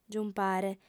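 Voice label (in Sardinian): "giumpare", Sardinian, female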